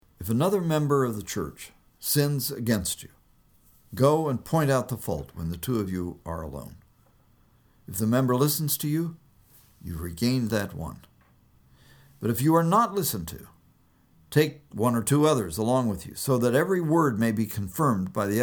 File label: storytelling